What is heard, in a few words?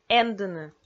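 "эндыны", Komi, female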